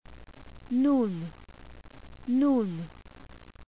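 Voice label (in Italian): "nun", Ebraico; qabalah; alfabeto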